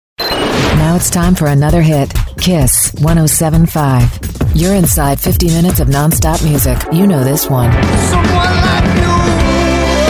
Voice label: hot ac, radio imaging